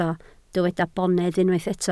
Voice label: "bonedd", Welsh

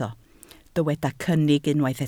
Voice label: "cynnig", Welsh

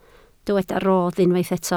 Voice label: "rodd", Welsh

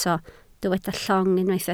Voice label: "llong", Welsh

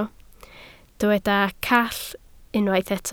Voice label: "call", Welsh

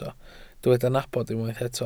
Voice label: "nabod", Welsh

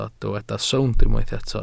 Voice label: "sownd", Welsh

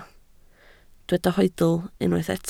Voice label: "hoedl", Welsh

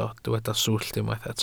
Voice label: "swllt", welsh

